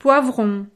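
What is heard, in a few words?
"poivron", French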